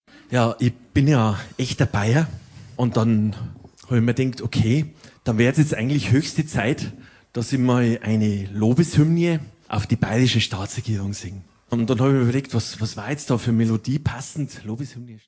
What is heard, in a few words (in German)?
Ansage